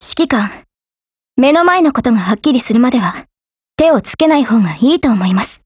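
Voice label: DIALOGUE, Japanese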